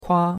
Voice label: "kua1", chinois; Cours-de-Chinois

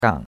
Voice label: "gang3", chinois; Cours de Chinois

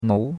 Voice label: "nou2", chinois; Cours-de-Chinois

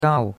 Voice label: "gao4", chinois; Cours-de-Chinois

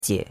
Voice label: "jie3", chinois; Cours-de-Chinois

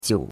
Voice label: "jiu3", chinois; Cours de Chinois

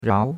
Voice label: "rao2", chinois; Cours de Chinois

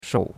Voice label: "shou3", chinois; Cours-de-Chinois

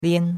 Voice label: "lian1", chinois; Cours-de-Chinois

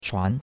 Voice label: "chuan2", chinois; Cours-de-Chinois

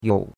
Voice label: "you3", chinois; Cours-de-Chinois

